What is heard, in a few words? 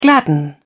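"gladden", English, British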